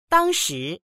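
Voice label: "dang1shi2", Chinese; 当时; 当時；即座に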